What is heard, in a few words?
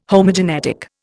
"Homogenetic", Indian